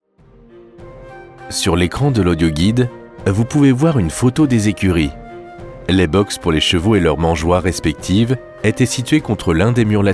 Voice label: audio guide

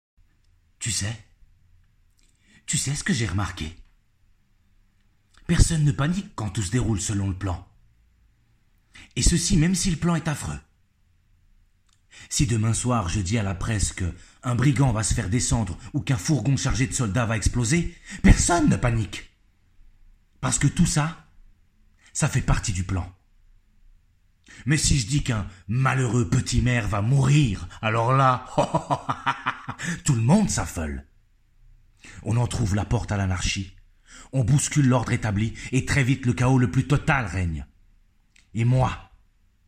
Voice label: monologue; Joker

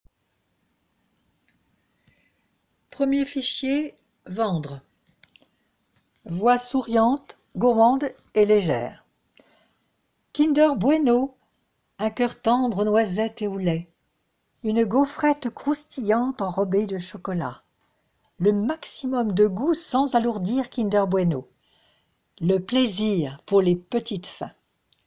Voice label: Vendre voix souriante gourmande et légère

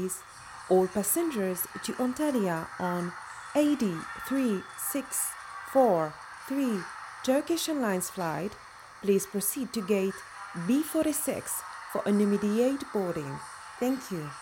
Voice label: Embarquement voix off

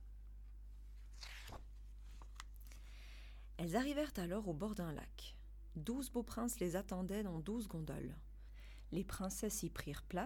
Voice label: narration; Bal des 12 princesses

